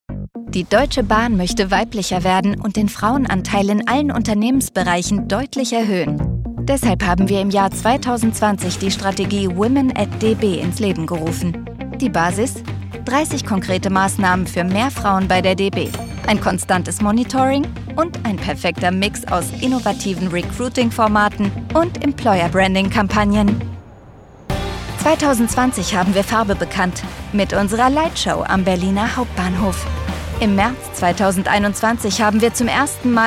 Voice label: german narration